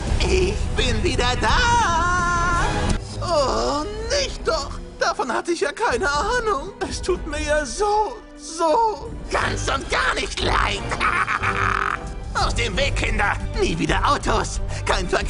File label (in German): Synchron Schrille Charge, German; Lip-Sync (Synchron); Hot Wheels - Synchron - Schrille Charge